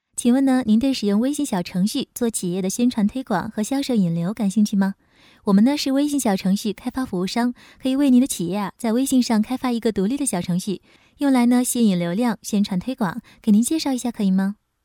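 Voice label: Mandarin Chinese, female, voice over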